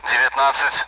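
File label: Speech Sound Russian Common AWACS Numbers; DCS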